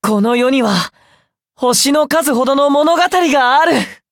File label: upgrade, ja; cookierunwiki